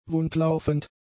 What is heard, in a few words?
"wundlaufend", German; adjectives